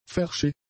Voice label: "verschifft", German; adjectives